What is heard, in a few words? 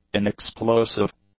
"inexplosive", English; adjectives